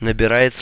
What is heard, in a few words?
calling, russ; sgh-d720; AnyVoice